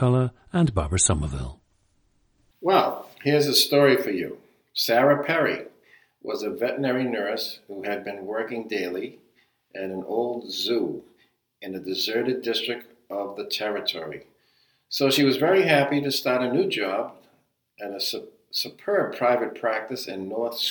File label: massachusetts, dialects